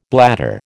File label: "bladder", American